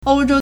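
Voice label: "ou1zhou1", Mandarin Chinese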